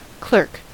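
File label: "clerk", US English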